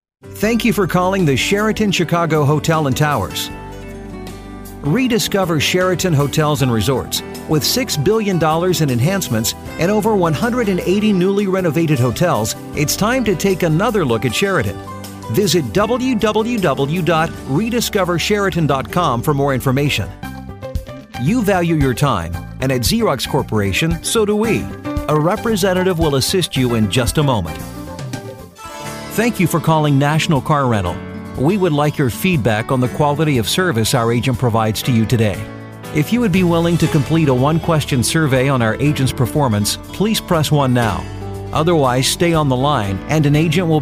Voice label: US, IVR

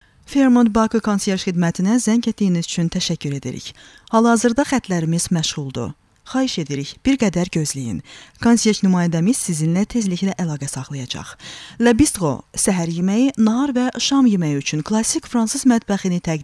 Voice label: AZERI

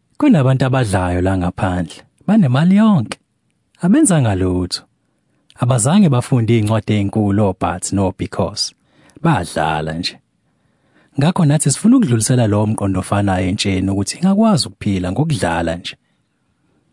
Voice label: Zulu

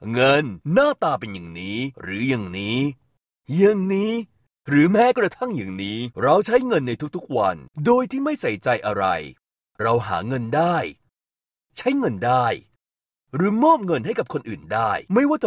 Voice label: THAI

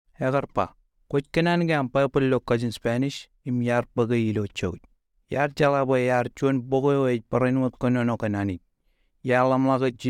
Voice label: Marshallese

